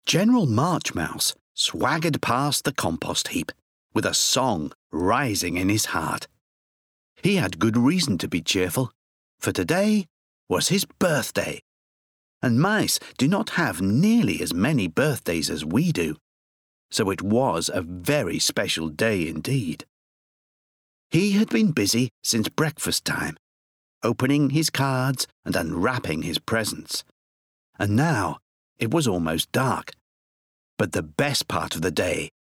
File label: Childrens Book